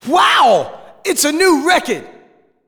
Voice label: Wow, It's A New Record! (Echo); DDR Extreme SFX; evaluation new record